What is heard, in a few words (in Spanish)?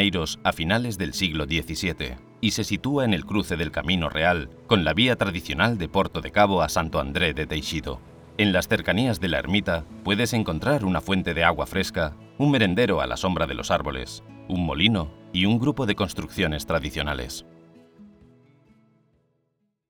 audioguia reboredo